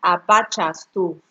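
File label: "abachastov", Nigerian Pidgin, female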